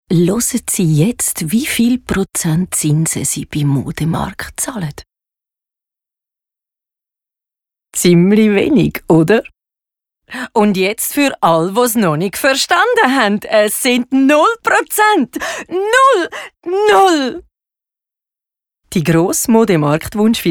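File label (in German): Werbung steigernd, Zürich Deutsch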